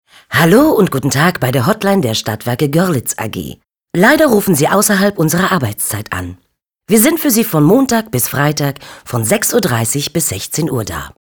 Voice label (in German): anrufbeantworter